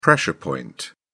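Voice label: "pressure point", British English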